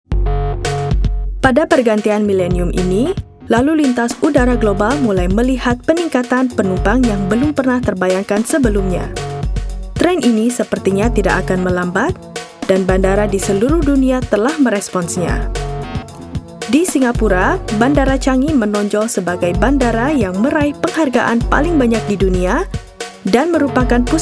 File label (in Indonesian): Bahasa Indonesia Documentary